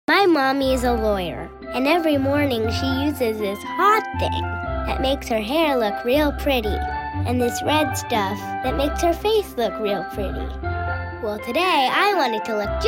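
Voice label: American; pigtails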